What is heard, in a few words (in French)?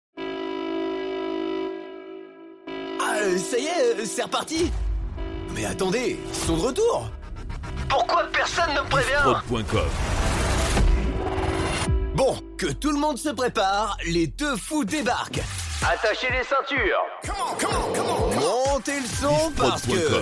Rampe Intro Jeudi Sans Limites avec bed prolonge